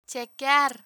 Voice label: "chequear", Spanish